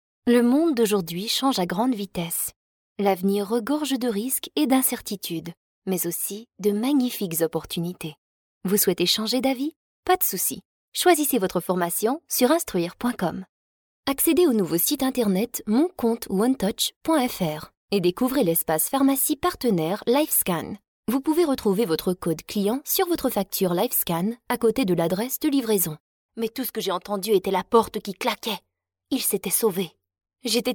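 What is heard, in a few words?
French, France, female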